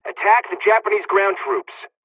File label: takeoff, dialogs serbian; War Thunder